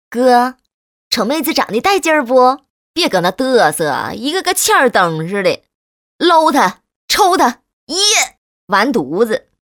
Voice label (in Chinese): 女国 动画 游戏 棋牌; 辽宁棋牌